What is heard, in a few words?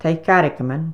"tighcargaman", Placenames, islay